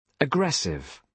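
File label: "aggressive", British English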